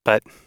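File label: "but", American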